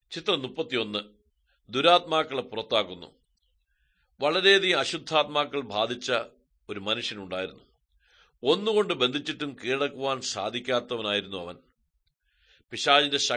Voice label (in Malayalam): good news malayalam, drama